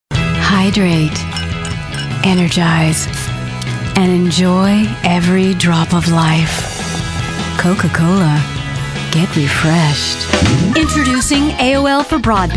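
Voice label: commercial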